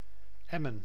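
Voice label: "Emmen", Dutch; Emmen (Drenthe)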